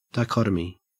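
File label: "dichotomy", Australian English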